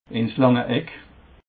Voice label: "lange eck", alsace

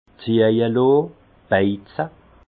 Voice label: "ziehjelonn", alsace; vin

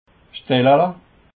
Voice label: "stellele", alsace

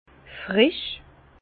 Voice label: "frisch", Bas Rhin; frais; vin

